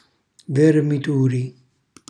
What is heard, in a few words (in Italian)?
"vermituri", Calabrese; cucinacalabrese; glossario